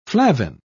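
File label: "flavin", British English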